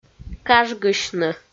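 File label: "кажгысьны" Komi, female; kpv audio dict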